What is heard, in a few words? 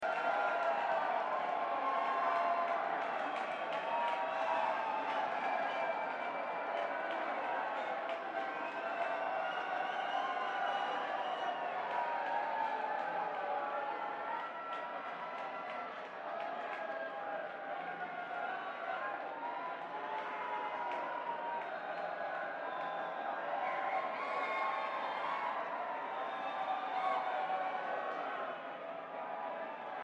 Banter Crowd